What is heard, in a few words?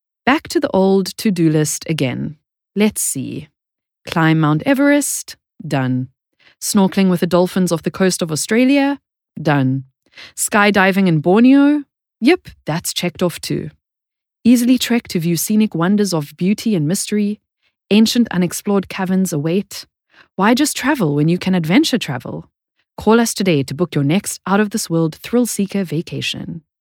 SA Soft Sell